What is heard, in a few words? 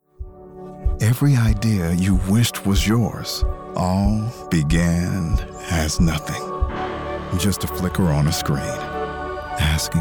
Commercial